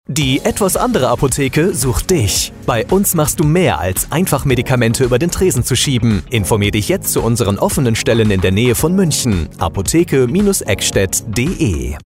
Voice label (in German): Funkspot; St-Georg-Apotheke